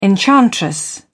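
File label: "enchantress", British English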